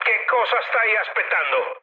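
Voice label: Italian attack position mood med, speech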